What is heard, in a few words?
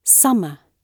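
"summer", British English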